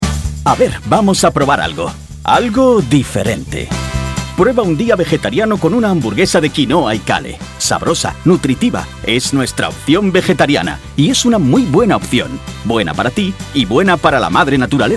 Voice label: spanish commercial

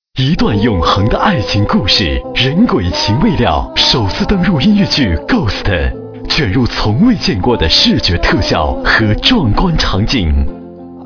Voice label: chinese mandarin movie trailers

